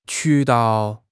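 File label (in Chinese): "渠道", Chinese; HSK6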